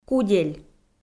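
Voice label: "кудель", Komi, female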